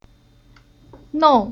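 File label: "Nou", Chinese syllable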